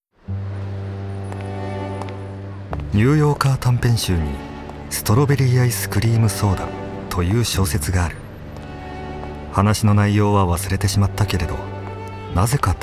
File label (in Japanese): ナレーション ナチュラル